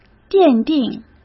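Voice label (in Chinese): "奠定", Mandarin; chinese flashcards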